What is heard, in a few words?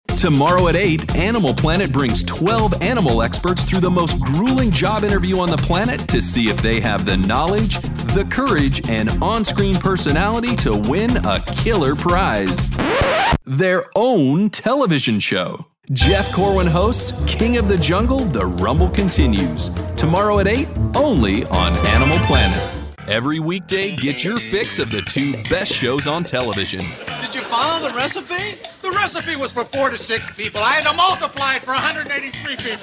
TV promo